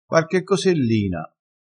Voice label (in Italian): "cosellina", dialettale